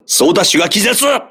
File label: voice message helmsman contused, crew ships japanese